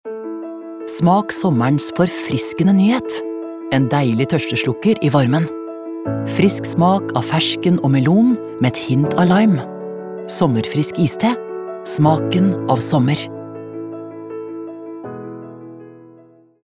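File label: Norwegian soft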